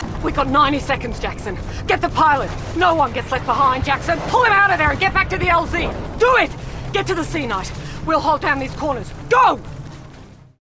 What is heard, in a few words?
Australian, War